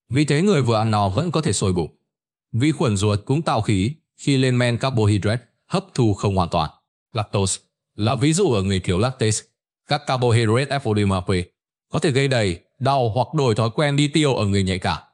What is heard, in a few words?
narration; Vi Sao Bung Ban Reo Khi Doi; StickerMan